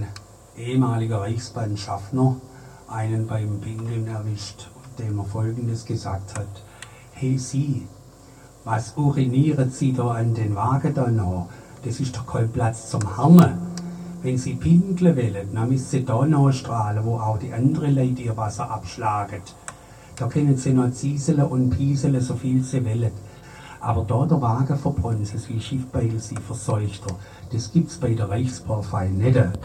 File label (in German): Blumenreiches Schwäbisch